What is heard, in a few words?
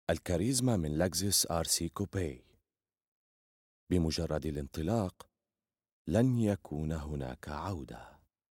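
arabic syrian male commercial; voice demos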